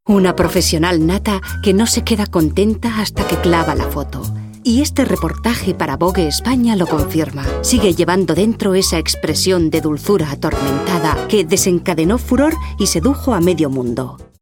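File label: F, Spanish Spain, female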